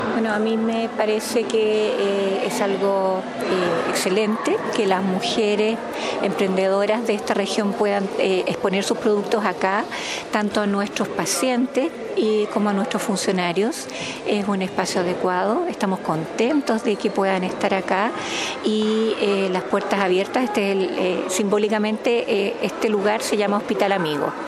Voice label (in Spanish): Cuña; mujeres emprendedoras comercializaron productos hospital puerto montt